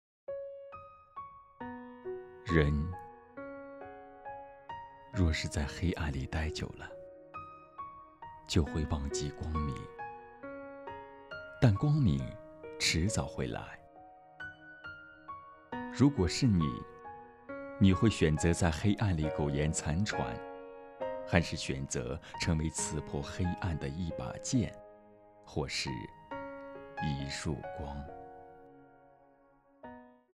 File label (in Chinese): 文案配音